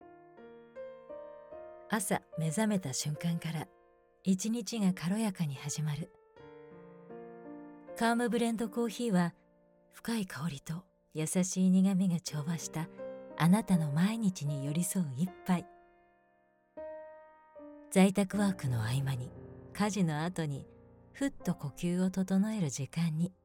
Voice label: japanese